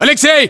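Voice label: "aleksei", battlechatter, Russian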